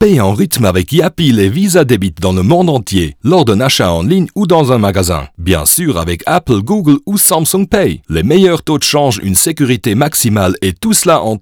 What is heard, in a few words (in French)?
Spotify FR; YAPEAL